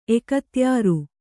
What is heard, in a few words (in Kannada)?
"ಎಕತ್ಯಾರು", Kannada